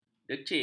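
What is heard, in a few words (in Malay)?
"decik", Malay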